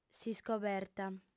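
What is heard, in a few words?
"s'iscoberta", Sardinian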